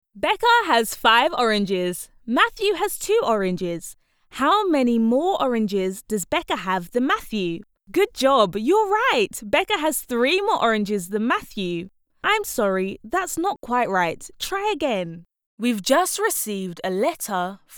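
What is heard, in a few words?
British English e-learning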